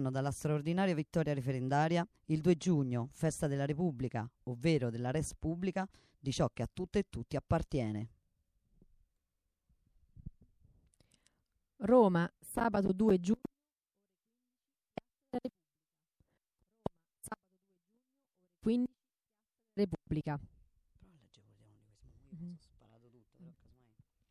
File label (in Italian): spot acqua manifestazione voce